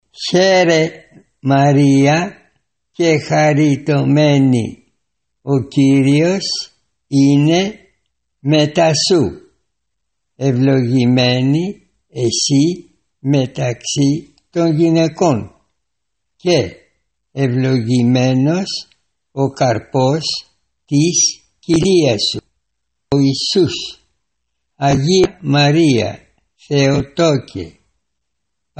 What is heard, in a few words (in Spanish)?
ave maria griego lento; ave maria idiomas